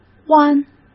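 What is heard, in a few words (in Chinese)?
"弯", Mandarin Chinese; hsk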